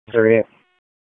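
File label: Raleigh Police Northeast District Dispatch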